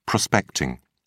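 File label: "prospecting", British English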